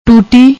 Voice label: "tuti", Punjabi